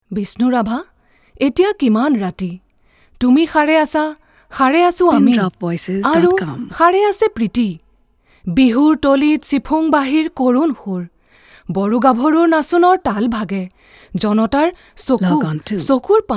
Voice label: Assamese